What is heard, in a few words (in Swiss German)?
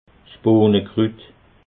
"bohnekrut", alsace